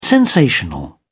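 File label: "sensational", British English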